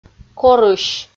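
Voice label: "корысь" Komi, female; audio dict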